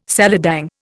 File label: "seladang", Indian